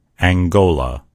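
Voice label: "angola", English; gcompris; geography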